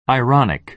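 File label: "ironic", American English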